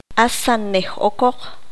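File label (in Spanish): "único", qanjobal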